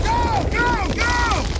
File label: us go go go; dod; dod 1.3; dod enhancement pack